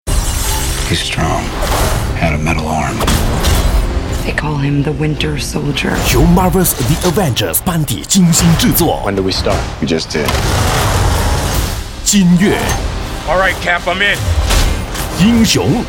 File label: Radio